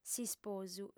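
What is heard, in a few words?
"s'isposu", female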